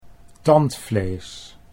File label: "tandvlees", Dutch